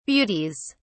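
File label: "beauties", British English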